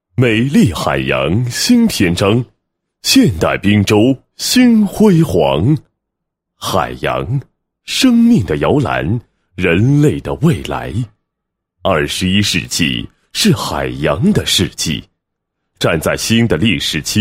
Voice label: 男-地区宣传-美丽海洋新篇章, 配音案例; 滨州宣传

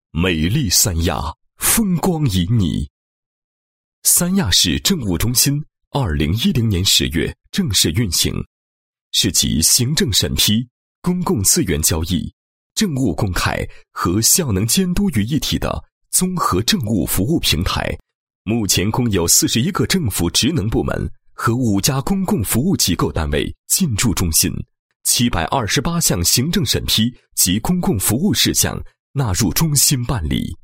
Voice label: 男-政府机构-政务中心宣传介绍, 配音案例; 三亚市政务中心宣传介绍

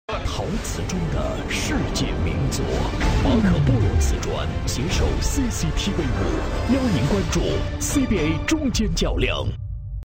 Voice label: 男 品牌广告, 国语男声配音

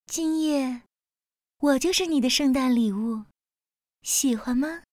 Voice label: 模仿, 女魅惑

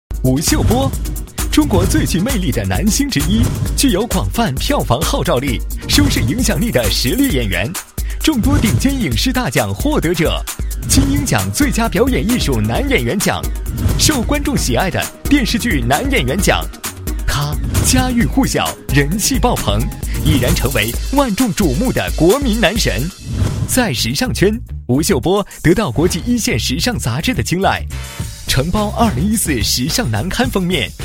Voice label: 男 电视节目, 国语男声配音 磁性; 吴秀波介绍

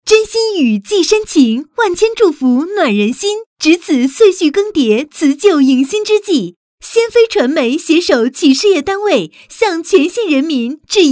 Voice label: 拜年广告

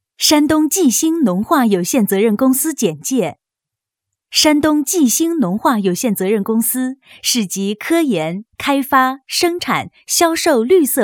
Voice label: 女, 公司解说; 山东济兴成品

